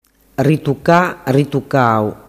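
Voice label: "ritucacau", Sicilian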